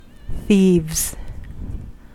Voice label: "thieves", US; pronunciations

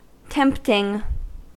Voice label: "tempting", US; pronunciations

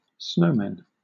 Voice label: "snowmen", en

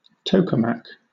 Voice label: "tokamak", UK

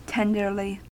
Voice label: "tenderly", US; pronunciations

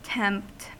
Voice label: "tempt", US; pronunciations

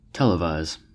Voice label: "televise", US; pronunciations

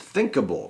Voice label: "thinkable", US; pronunciations

